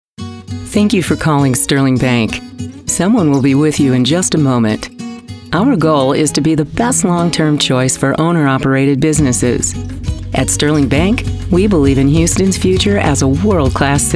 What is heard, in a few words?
Custom On Hold